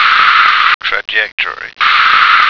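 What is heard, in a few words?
"trajectory", American English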